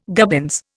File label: "gubbins", Indian